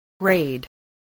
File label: "grade", spelling